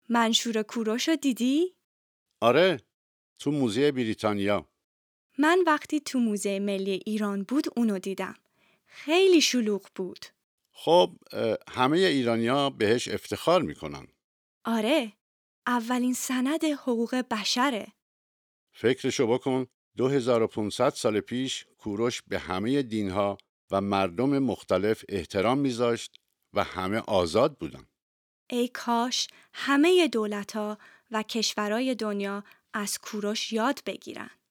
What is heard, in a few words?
Dialogue lesson Farsi